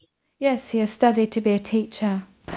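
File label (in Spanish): Voice Acting, Spanish, female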